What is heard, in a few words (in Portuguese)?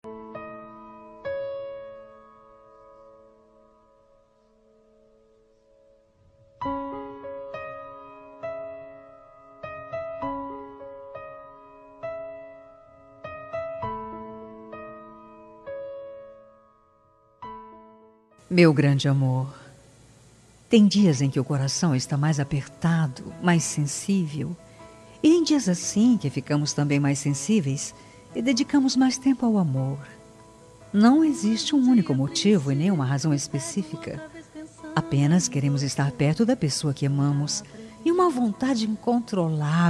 romântica, Telemensagem Romântica GLS – Voz Feminina